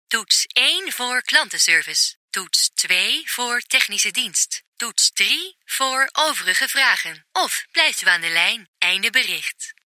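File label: Dutch, female, IVR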